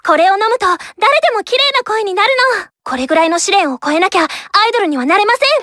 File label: penny jp normal